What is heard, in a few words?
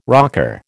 "rocker", American